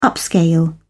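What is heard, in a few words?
"upscale", British English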